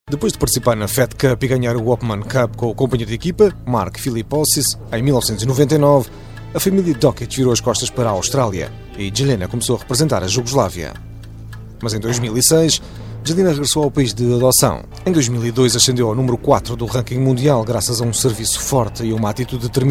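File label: portuguese male narration; voice demos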